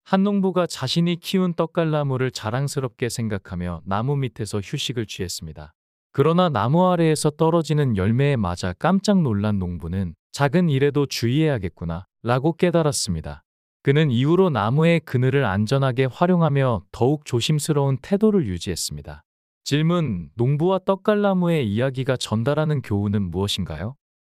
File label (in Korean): 이야기 남자; 농부와 떡갈나무